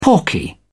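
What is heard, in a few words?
"porky", British English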